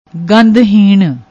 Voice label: "gandhahinn", Punjabi